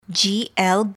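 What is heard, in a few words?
"gl", English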